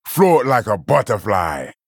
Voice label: Male Troll Play

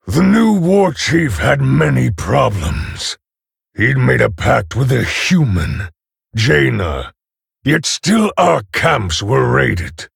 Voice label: Male Orc Ogre

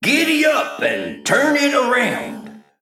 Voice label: Male Mech Combat Loss

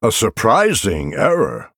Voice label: Male Night Elf Oops

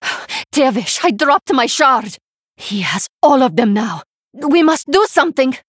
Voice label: Female Draenei Boss Reveal

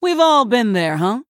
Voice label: Female Human, Oops

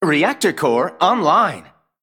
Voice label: Male Mech Play

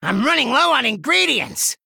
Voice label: Male Gnome Low Cards; Scabbs

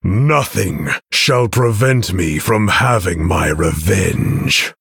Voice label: Male Human Picked

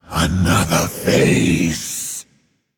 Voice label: Male Monster Attack

